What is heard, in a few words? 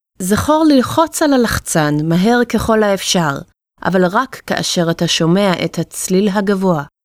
Test Balance Auditory, hebrew